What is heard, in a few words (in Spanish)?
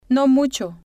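no mucho, Spanish, female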